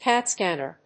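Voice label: "CAT scanner", English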